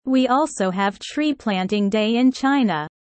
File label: low; four seasons